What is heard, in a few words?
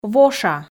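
"воша", Komi, female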